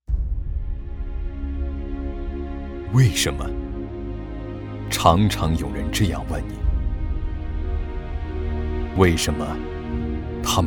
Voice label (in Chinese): 微电影旁白; 为什么烟草公司